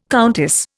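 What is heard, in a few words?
"countess", Indian English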